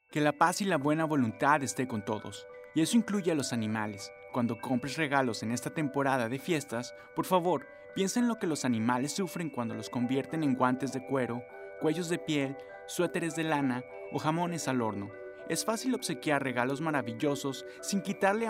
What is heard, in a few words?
vegan christmas radio spot male version spanish; peta